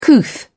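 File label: "couth", British English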